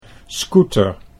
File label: "scooter", Dutch